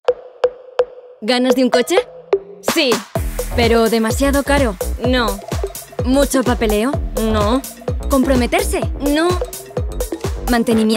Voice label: Promo; Sixt